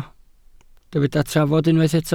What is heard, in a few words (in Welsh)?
"trafod" Welsh